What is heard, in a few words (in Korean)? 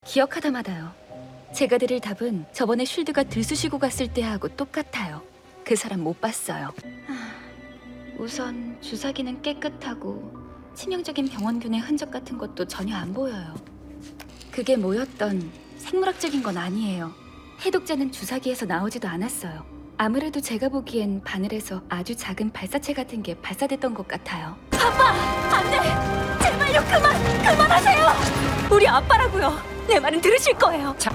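애니 30대 여 차분하고 지적인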